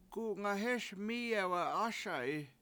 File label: where are you going, washo; k$UngahEES mIIyewe7ASa7i